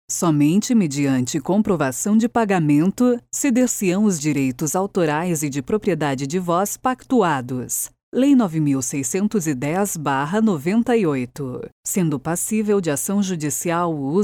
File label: locutora brasil brazilian voiceover, locutora brasileira